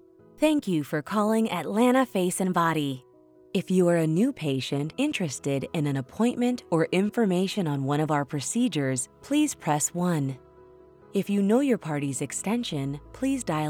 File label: On Hold Spa Relaxing Warm Inviting